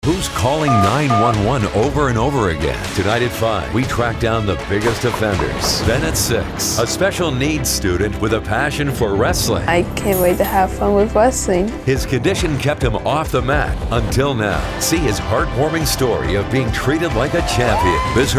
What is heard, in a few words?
tv affiliates men